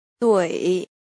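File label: "dui" third tone, pinyin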